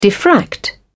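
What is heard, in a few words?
"diffract", en, British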